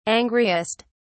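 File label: "angriest", British English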